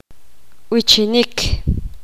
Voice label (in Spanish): poqomam cantar